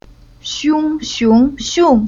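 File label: "Xiong", chinese syllable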